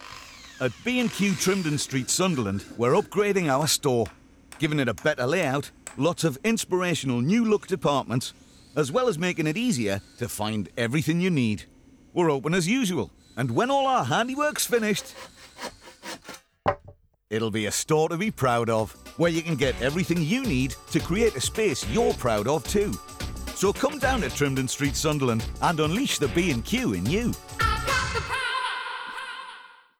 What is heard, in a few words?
Local Radio; BQ